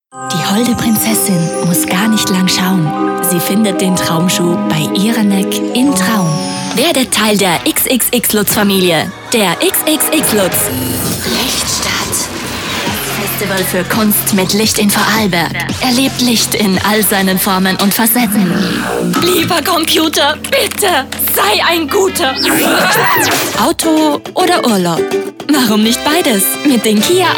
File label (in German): VOX Radiospots